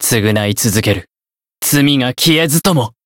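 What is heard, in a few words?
Voice variable chant, Japanese; dunarith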